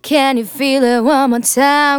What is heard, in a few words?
phrase female